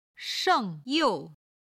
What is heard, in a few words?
"sheng4 you4", Chinese